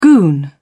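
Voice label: "goon", British English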